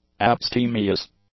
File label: "abstemious", english; adjectives